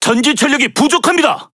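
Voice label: Sub Battery Low, Korean speech alarms; World Of Warships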